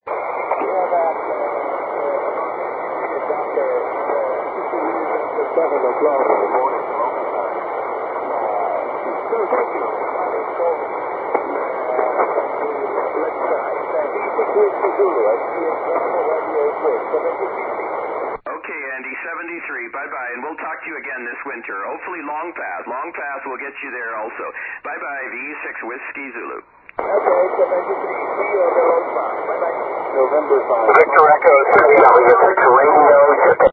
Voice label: 80 ssb; SSB-SP